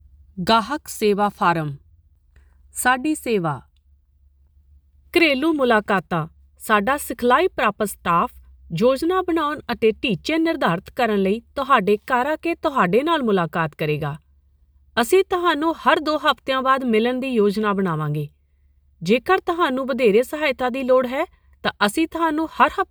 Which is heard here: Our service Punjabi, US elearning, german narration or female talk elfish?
Our service Punjabi